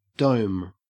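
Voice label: "dome", Australian English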